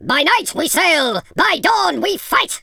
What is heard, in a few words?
yes sir, speech, Viking